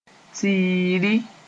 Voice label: "tiiri", Moore; circumference